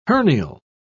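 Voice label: "hernial", British English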